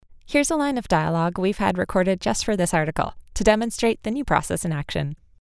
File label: unedited dialogue